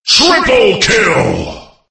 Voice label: announcer kill triple